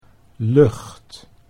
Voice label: "lucht", Dutch